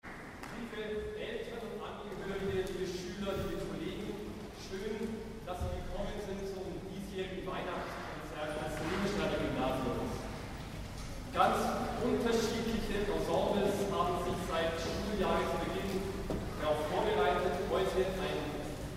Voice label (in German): Ansage